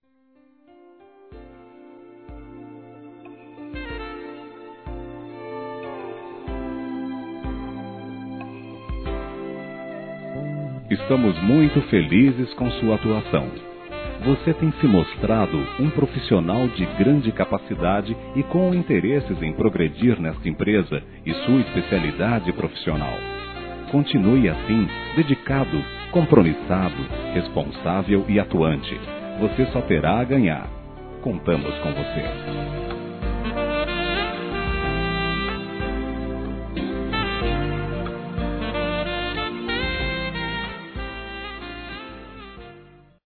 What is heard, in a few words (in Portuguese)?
INCENTIVO A FUNCIONARIO, masc; Empresarial; Telemensagens 2017